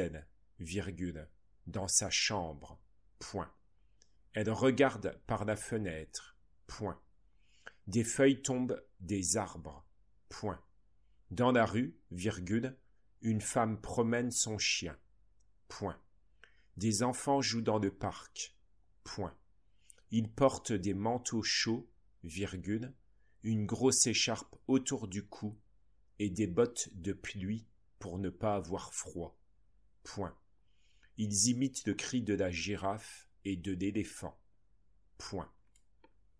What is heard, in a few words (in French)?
dictee flash f